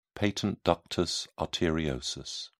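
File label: "patent ductus arteriosus", British English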